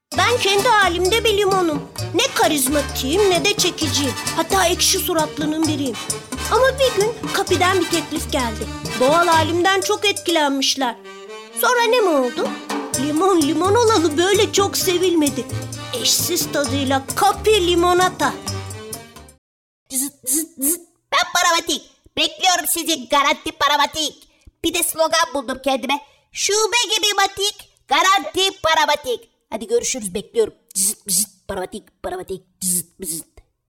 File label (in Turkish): ANIMASYON